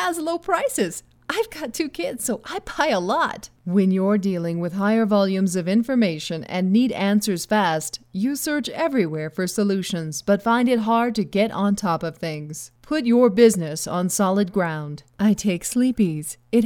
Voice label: commercial